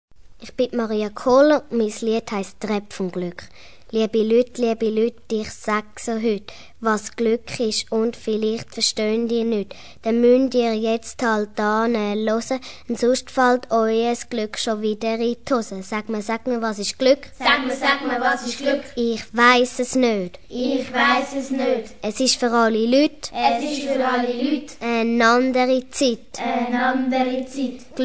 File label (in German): Gedicht Rap